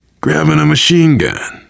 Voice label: take submachine gun, voice, biker; Left 4 Dead 2; survivor